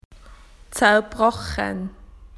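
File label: "broken", Australian English; PictureDictionary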